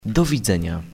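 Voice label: "Goodbye", Polish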